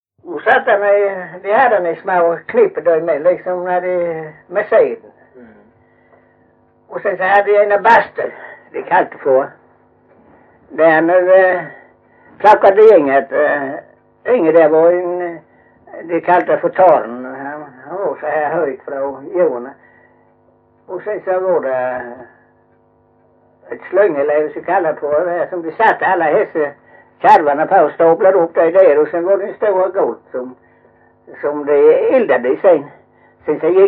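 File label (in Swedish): Mjällby Blekinge, Svenska sagor dialekter; Folkminnen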